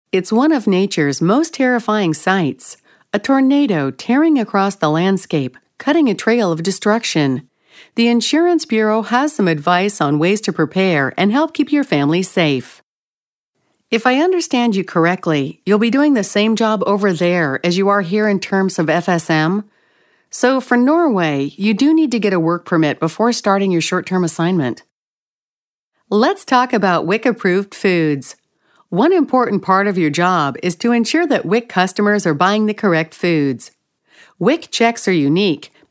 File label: eLearning, Female